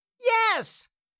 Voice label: Cartoon little child voice "yes"